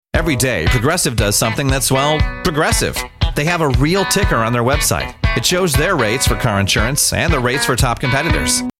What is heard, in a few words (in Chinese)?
外籍男 商业广告 Commercial; Progressive